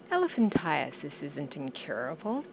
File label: "elephant", intonation